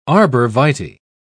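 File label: "arborvitae", British English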